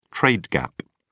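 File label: "trade gap", British English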